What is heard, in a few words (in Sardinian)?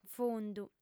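"fundu", Sardinian, female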